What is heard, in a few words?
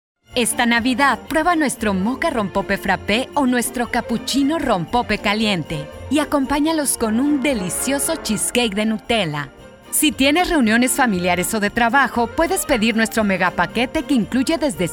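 Spanish Latin America female sales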